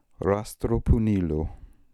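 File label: "Rastropoonilo", pronunciations; Fourth Way; Beelzebub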